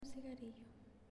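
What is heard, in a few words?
"Cigarillo", Spanish, female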